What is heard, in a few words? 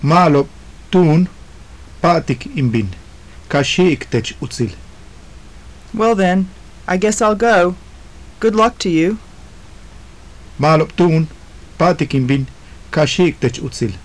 conversation, maya; Ma'alob túun páa'tik in bin. Ka xi'ik tech utsil.; Well I guess I'll go. Good luck to you.; JUNP'IIT MAYA